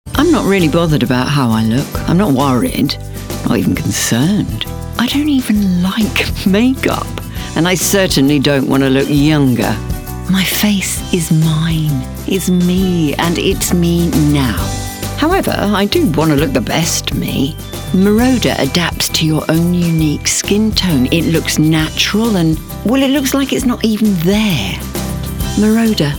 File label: Promo; MERODA